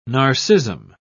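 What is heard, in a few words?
"narcism", British English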